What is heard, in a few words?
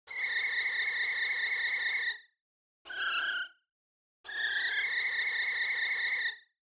krikree happy chirping